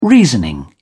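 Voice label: "reasoning", British English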